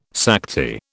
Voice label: "Sakti", British